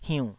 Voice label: "hiunnh3", Taiwanese syllable